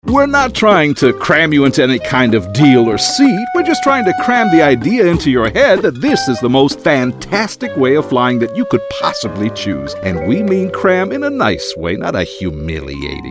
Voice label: Commercial